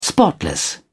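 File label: "spotless", British English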